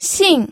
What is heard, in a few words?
"xing4", pinyin